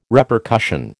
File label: "Repercussion", American